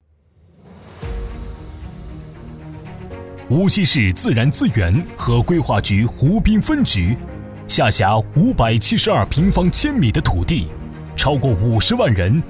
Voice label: 男 专题 大气激昂

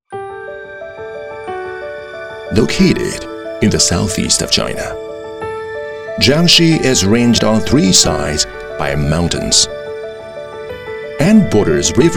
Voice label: 英男 美式 纪录片 中年音色

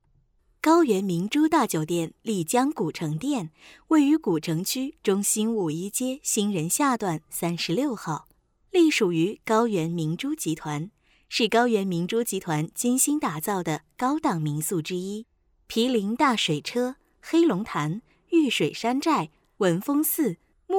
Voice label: 女 中文 专题 酒店介绍

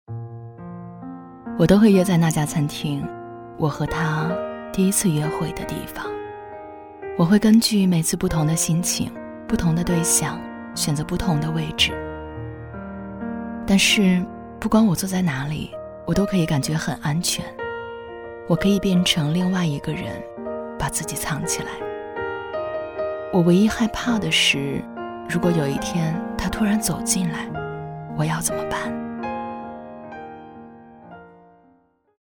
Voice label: 女 独白; 我该怎么办

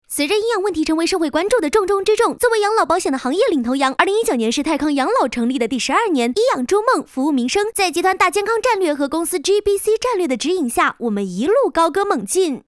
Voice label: 女 MG; 飞碟说MG-养老保险

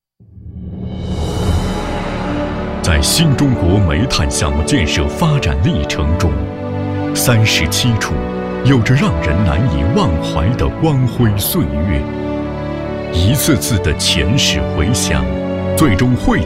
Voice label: 男 企业专题; 三十七处

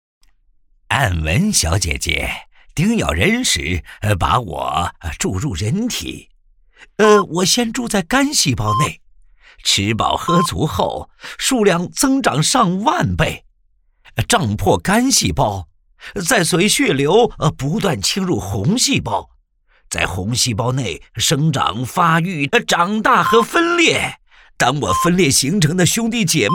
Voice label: 男, 角色, 病毒

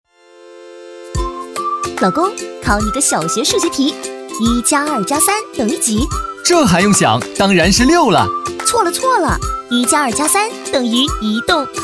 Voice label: 女-男女对播广告; 中国移动

